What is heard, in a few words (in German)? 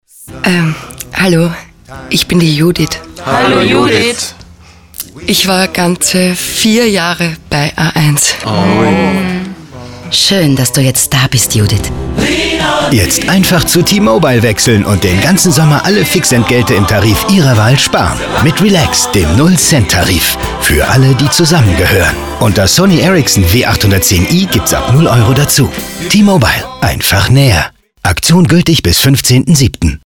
Hörfunk; Relax